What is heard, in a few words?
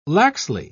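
"laxly", British English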